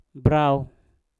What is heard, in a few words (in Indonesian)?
"brauw" dan, Mpur